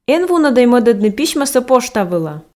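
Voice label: эн вунӧдӧй мӧдӧдны письмӧсӧ пошта вылӧ, Komi, female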